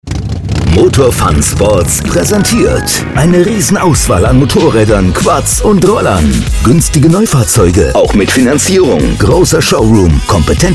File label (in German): Radio; Radiospot; MotorFunSports bei Radio Paradiso 2023